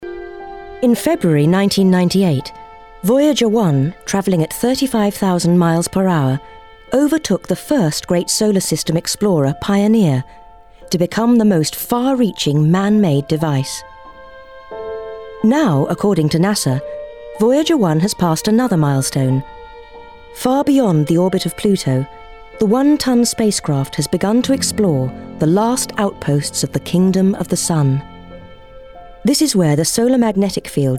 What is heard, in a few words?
Narration